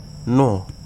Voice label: "drink", Wahgi; lae unitech

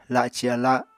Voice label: "laci ala", Apatani

